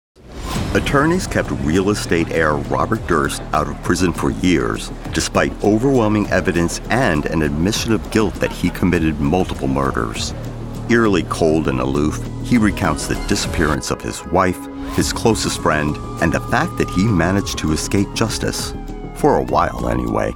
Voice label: Documentary

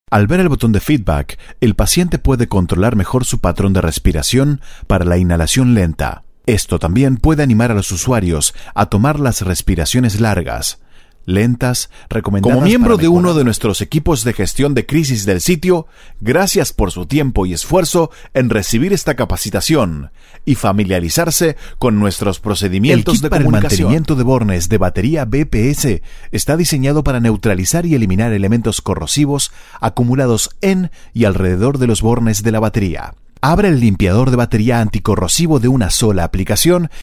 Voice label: Training ELearning Programs

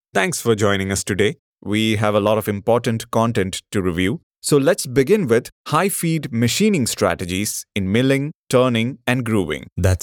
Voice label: E- Learning English

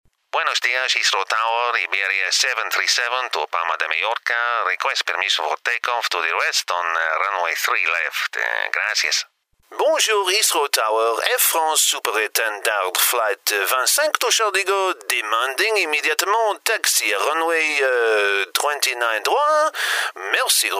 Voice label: Accents World

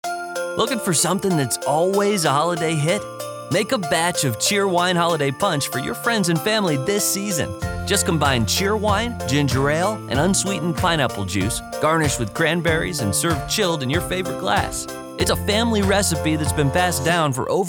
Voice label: Radio; Cheerwine Holiday Punch

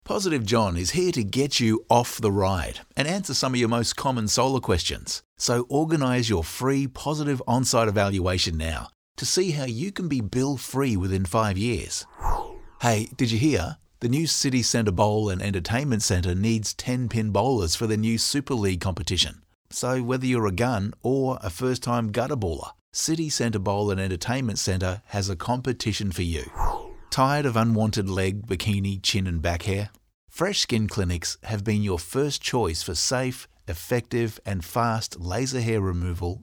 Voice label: Natural Conversational

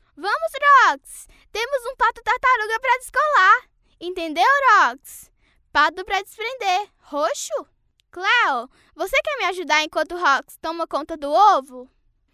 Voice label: Voice Children

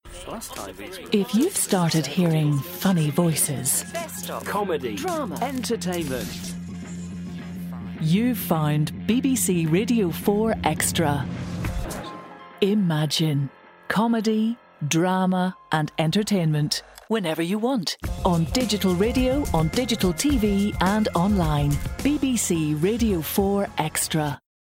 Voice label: imaging; R4 Extra

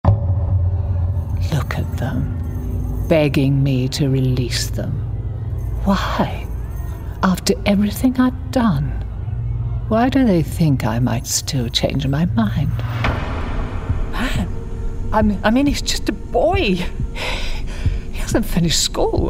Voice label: Gaming Character Voicereel

